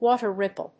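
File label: "water ripple", English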